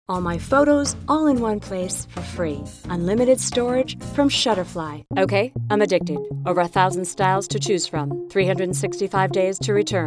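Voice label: Commercial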